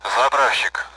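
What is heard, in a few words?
Speech, Russian, AWACS; Messages; texaco; DCS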